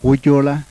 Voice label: laminal postalveolar, yanyuwa

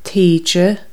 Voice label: "tide", Irish

